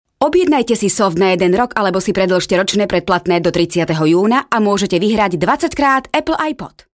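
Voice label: Slovak, female, commercial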